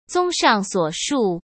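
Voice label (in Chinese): "综上所述", Mandarin Chinese; hsk; chinese-flashcards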